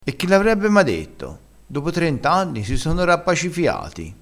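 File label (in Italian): "rappacifiassi", vocabolario dialettale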